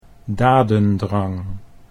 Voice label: "dadendrang", Dutch